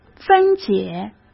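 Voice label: "分解", Mandarin Chinese; hsk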